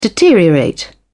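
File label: "deteriorate", British English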